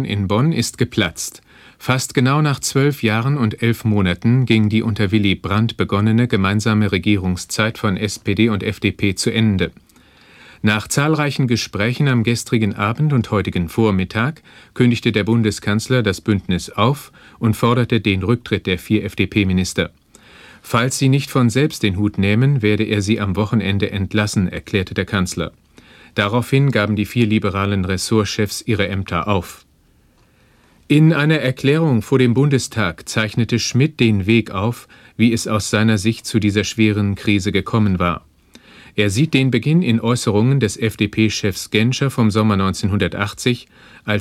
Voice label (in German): Nachrichten; SPD FDP Regierung geplatzt 1982; geschichte der radionachrichten